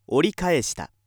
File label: male